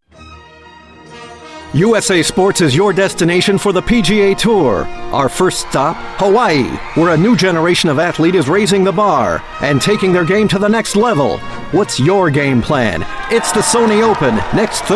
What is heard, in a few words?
promos trailers, voice actor